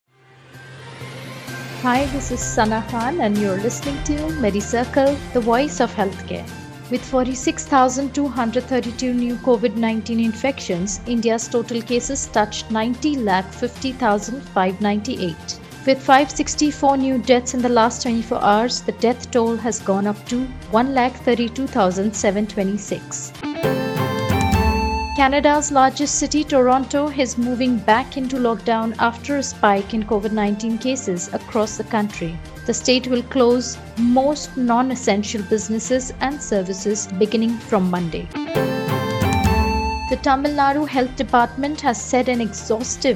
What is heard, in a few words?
english news